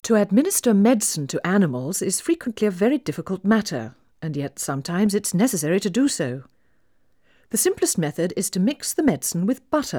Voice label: Female speech English Mono output noised; 4bit